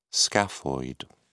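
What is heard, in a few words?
"scaphoid", British English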